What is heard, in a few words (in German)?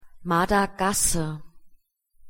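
"madagasse", German; pronunciation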